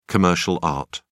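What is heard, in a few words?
"commercial art", English, British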